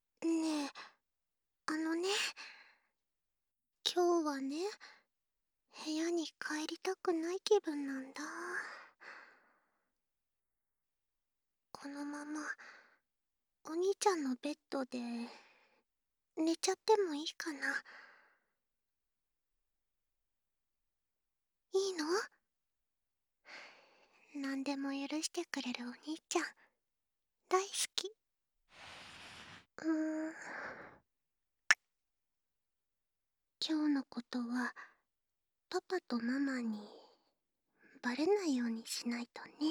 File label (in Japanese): 添い寝